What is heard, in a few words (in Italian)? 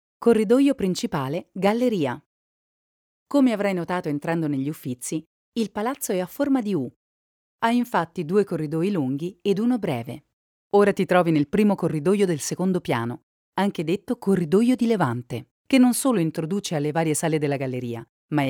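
Italian Audioguida, explanation voices; short version; Uffizi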